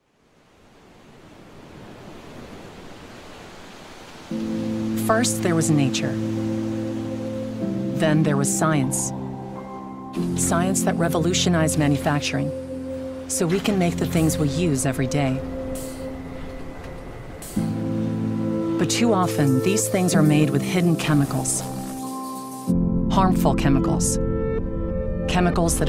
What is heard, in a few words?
Voice Over; Introducing Evolved By Nature